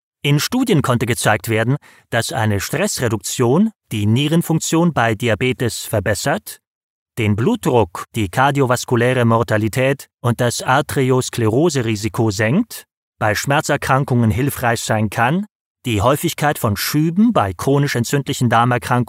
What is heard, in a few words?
elearning stressfunktion health medical function of stress